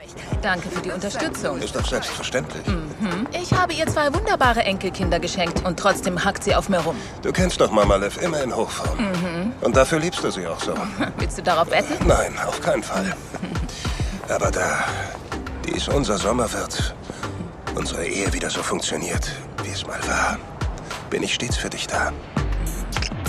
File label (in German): Synchron, frauen; Our Kind Of People